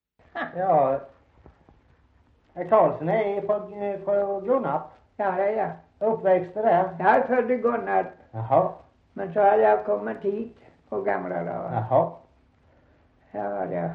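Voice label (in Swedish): Gunnarp Halland, dialekter; Folkminnen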